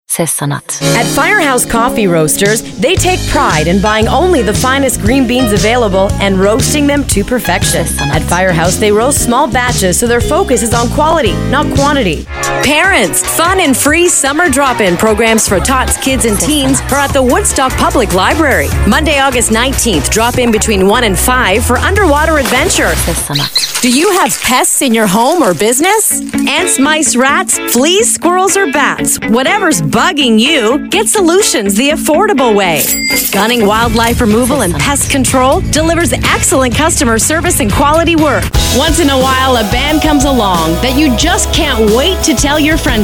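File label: English, w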